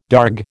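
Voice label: "darg", American English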